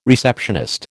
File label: "receptionist", American